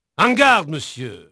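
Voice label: French male, voice; Pirates Of The Caribbean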